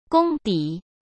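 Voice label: "功底", Mandarin Chinese; chinese-flashcards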